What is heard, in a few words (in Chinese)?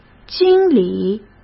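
"经理", Mandarin; chinese-flashcards; hsk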